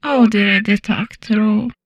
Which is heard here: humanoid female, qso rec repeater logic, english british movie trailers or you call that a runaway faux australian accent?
humanoid female